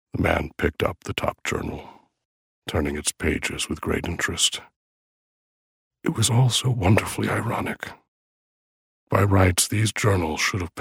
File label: Horror Thriller 3rd Person; CABINET OF CURIOSITIES by Preston Child